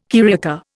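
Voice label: "carioca", Indian English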